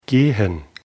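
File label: "gehen", German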